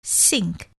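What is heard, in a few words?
"sink", English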